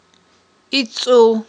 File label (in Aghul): "ицIул", aghul